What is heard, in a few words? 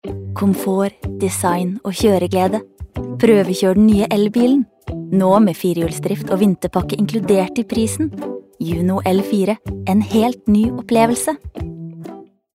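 no commercial, Norwegian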